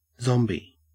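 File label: "zombie", Australian English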